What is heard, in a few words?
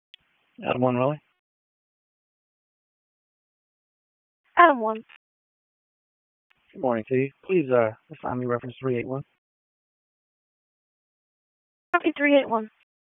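Police Northeast District Dispatch, p25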